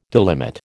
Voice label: "delimit", American